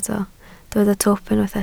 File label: "twp", Welsh